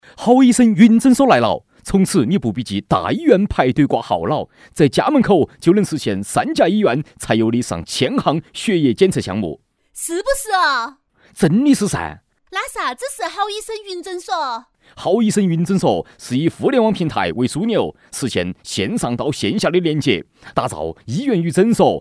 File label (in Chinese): 男16 医疗类(川话、重庆); 年轻